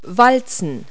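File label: "roll", English word; Multi-Lingual Talking Picture Dictionary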